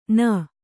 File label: "ಣ", Kannada